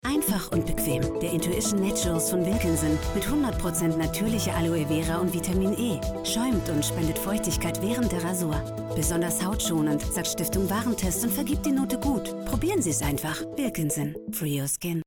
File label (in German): Werbung TV; Wilkinson; Sprachlabor